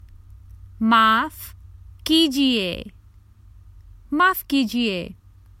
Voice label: Excuse me to get past someone, Common Hindi Phrases for travel